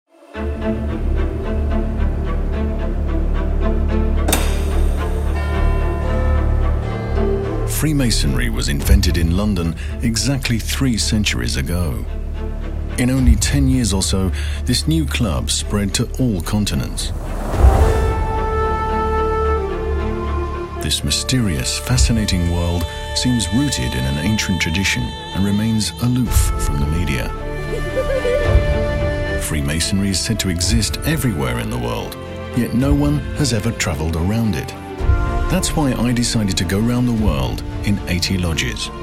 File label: documentary; Terra Masonica